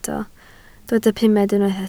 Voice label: "pumed", Welsh